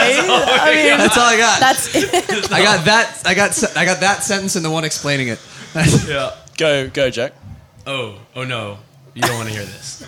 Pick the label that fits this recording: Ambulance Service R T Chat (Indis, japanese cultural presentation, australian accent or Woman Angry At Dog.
australian accent